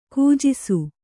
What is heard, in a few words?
"ಕೂಜಿಸು", Kannada